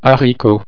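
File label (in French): "haricot", French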